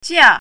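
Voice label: "jia4", Chinese pinyin, fourth tone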